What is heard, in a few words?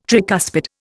"tricuspid", Indian